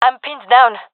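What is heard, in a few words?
pinned, female; vo; gendarmerie fem epic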